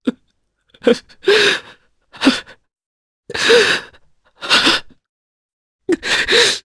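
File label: Vox Sad Japanese; Kasel; kings raid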